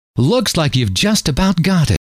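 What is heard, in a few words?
looks like you ve just about got it, male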